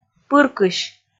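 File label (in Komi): "пыркысь", Komi, female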